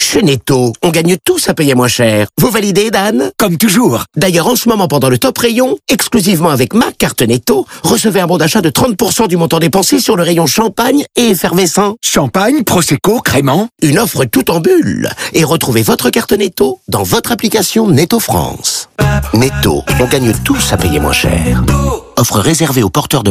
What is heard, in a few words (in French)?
PUB Rayon Champagnes; Netto 30%